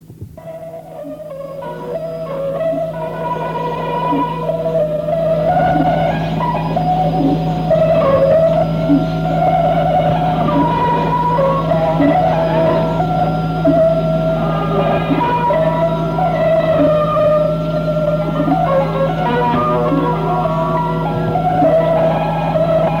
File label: Radio Peking Music News